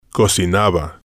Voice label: "cocinaba", spanish